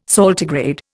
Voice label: "saltigrade", Indian